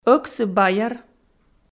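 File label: "ӧксы-баяр", Komi, female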